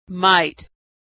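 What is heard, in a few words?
"might", American English; vowel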